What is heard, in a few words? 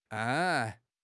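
"gan1", Mandarin Chinese; HSK4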